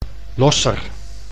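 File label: "Losser", Dutch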